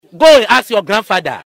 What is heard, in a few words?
Go and ask your grandfather Comedy sound